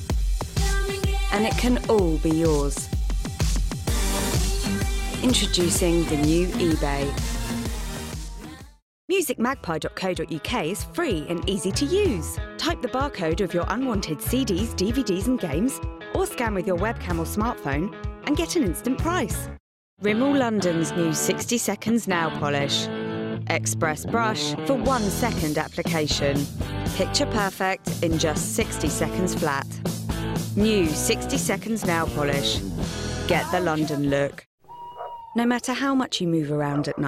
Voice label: Commercial Voicereel; voice-overs